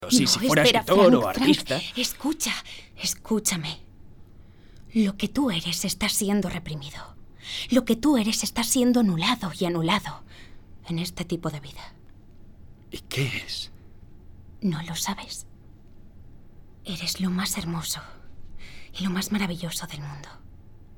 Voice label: spanish spain female